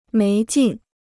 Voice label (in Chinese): "没劲", Chinese